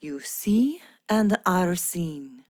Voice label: Ost Fem en; Cetus

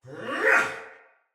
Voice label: Attack Heavy Soldier Male; Sinecure Soldier Male A

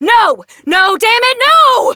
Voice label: Adult Op, en; Warframe; New War

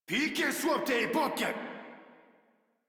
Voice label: Attack, Modular Corpus Male; Warframe

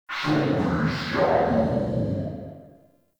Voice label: Barks Evil Awakening Verb; Warframe; Tau; Roathe

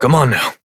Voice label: Horse Start Adult, Dialog; Duviri

